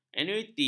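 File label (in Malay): "anuiti", Malay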